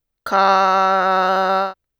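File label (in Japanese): "か", Japanese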